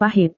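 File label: "pahit", Indonesian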